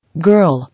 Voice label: "Girl", English

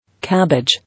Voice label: "Cabbage", English

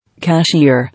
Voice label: "Cashier", English

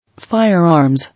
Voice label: "Firearms", English

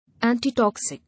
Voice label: "Antitoxic", English

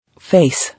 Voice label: "Face", English

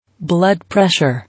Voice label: "Blood Pressure", English

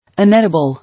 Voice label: "Inedible", English

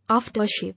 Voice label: "Aftershave", English

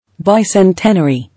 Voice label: "Bicentenary", English

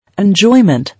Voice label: "Enjoyment", English

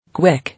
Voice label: "Quick", English

